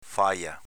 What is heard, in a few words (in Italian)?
"faja", dialetto veneto; s.f. covone